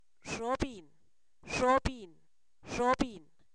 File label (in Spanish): maíz siembra, Zapoteco, san miguel albarradas; comestible